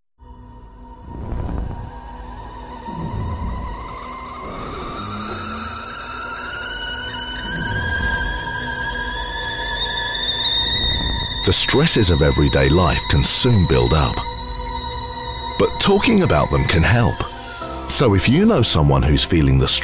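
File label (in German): British English Fremdtake Freundlich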